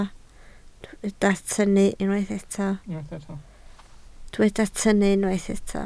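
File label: "tynnu" Welsh, spoken word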